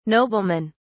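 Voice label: "noblemen", British English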